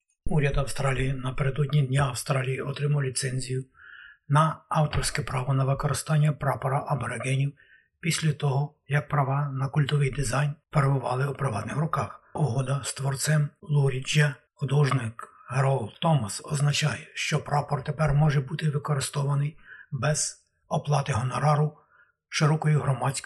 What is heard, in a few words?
ukrainian; Абориґенський прапор у вільному доступі; SBS Ukrainian